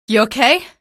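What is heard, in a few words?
citizen buddy killed, female; vo; npc; source engine; episodic; episode 1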